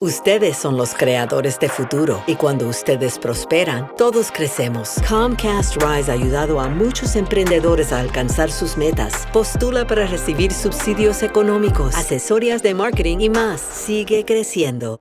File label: Spanish; COMCAST RISE 2025